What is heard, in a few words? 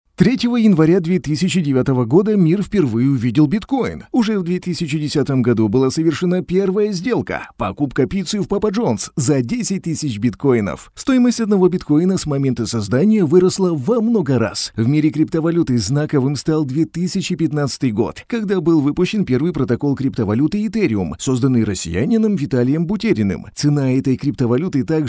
russian e-learning